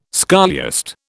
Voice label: "scholiast", British